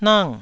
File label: "nang4", Taiwanese